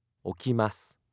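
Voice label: "okimasu", Japanese; NewNakama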